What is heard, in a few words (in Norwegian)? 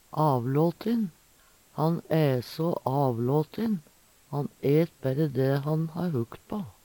"avlåtin", Numedalsmål; Han æ so avlåtin i matveigen. Han et bærre dæ han ha hukt på.